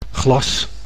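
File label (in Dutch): "glas", Dutch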